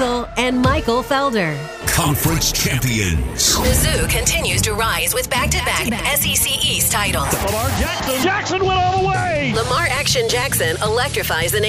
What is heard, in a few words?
Sports Imaging